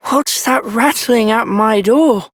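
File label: Child Rhymes Fear Child Male; Duviri; Childrens Rhymes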